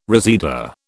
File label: "reseda", British